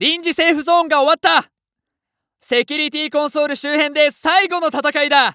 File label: Announce 2nd Safe Area Cancel N Ready, JPN; Eternal Return